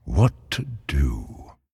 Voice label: Thinking; Hero